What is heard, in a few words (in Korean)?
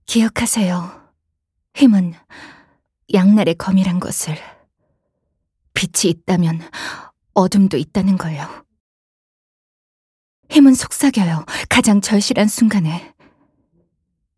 성스러운 영혼이 점점 타락해가는 세련된 20대